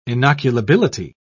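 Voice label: "inoculability", British English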